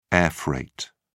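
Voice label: "airfreight", British English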